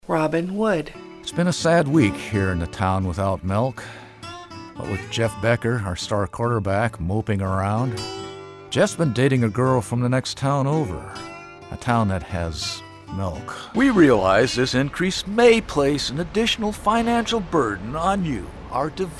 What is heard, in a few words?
male voice